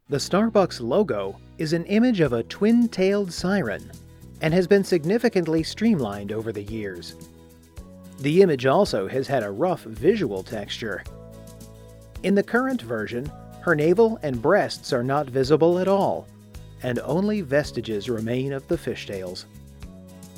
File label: documentary narration; Starbucks